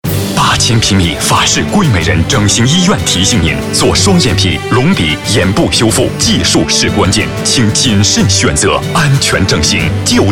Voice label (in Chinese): 男 广告 公益